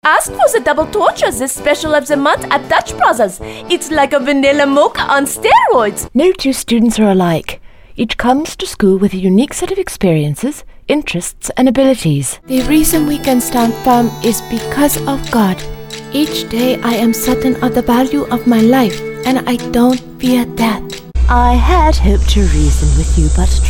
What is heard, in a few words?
character dialect